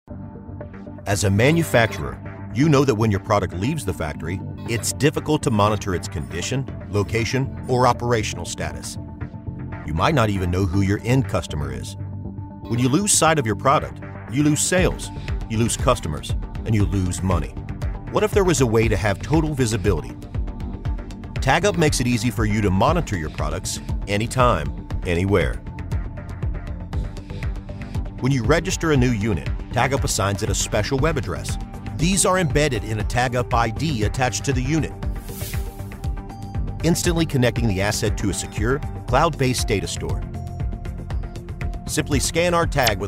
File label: Conversational male voice, Character / Cartoon, Character Voices; This Demo Showcases A Range Of Character Voices Suitable For Animati; Tagup ID